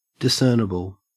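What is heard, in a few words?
"discernible", Australian English; pronunciations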